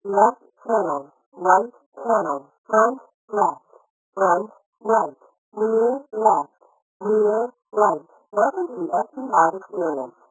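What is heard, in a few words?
pshift female, shift of frequencies above 1500 Hz with ratio=-8 semitones and offset=-100 Hz, after compression and decompression by the VLC codec at 8000 bps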